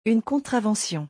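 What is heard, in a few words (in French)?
"une contravention", French; vocabulaire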